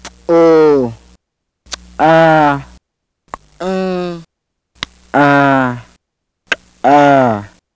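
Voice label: !xóõ, clicks; [ʘʔôo kǀʔâa kǃáã kǂʔāa kǀǀʔàa]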